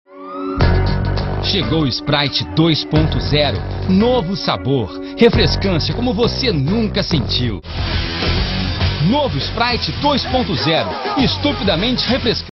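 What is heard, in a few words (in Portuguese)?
Locução, Voz Padrão - Grave, Português - Brasileiro, Masculino; Locução para FORD EcoSport 2018 - Liberte sua Imaginação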